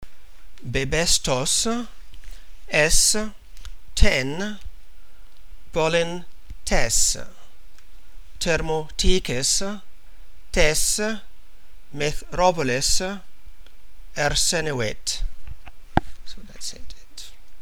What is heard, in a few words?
greek